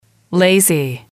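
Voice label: "lazy", English, female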